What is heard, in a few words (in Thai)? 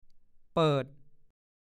"เป้ะ", ภาษาโคราช